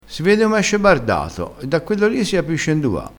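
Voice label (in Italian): "bardato", dialettale crespinese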